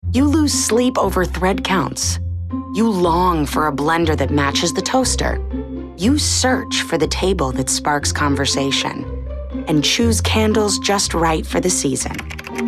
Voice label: Commercials